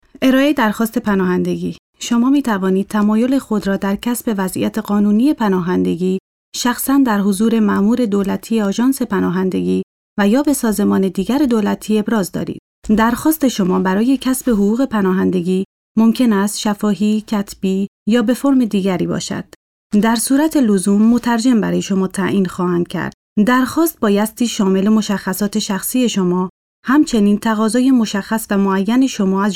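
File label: farsi female narration; voice demos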